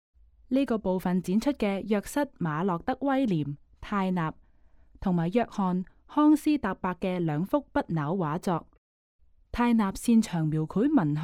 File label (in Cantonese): Canto; 赫洛與勒安得耳的離別（來自希臘詩人穆塞俄斯）; 約瑟・馬洛德・威廉・泰納（1775–1851年）; 布面油畫，146 x 236厘米; 英國國家美術館; 從波提切利到梵高：英國國家美術館珍藏展